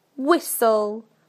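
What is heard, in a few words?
"whistle", British English; PictureDictionary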